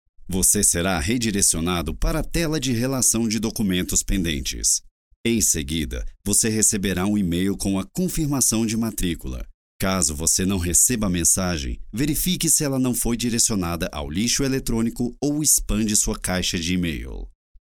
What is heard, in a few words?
portuguese brazilian e-learning